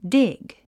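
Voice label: "dig", English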